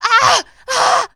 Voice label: Panic; zps; Humans; HumanLea